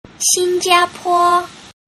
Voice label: "xinjiapo", Mandarin

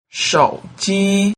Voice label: "shouji", mandarin

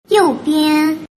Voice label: "youbian", mandarin

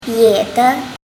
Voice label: "bie de", Mandarin

